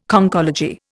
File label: "Conchology", Indian